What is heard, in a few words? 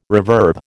"reverb", American